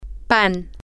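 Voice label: bread, Spanish, female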